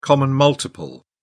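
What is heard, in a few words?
"common multiple", British English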